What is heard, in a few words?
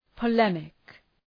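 "polemic", English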